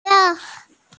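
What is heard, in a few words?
"gong yuan", Mandarin Chinese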